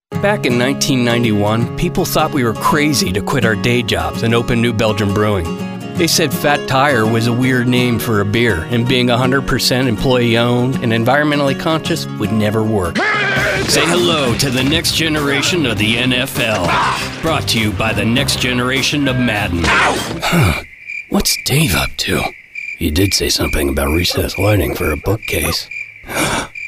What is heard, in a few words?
Commercial